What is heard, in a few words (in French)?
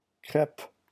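"crepe", French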